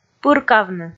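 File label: "пыркавны", Komi, female; kpv audio dict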